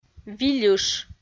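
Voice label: "вильыш", Komi, female; audio dict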